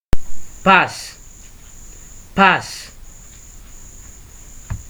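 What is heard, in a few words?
"pass", Nigerian Pidgin, male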